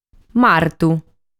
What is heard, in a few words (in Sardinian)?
"Martu", sardu